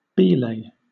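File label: "belay", British English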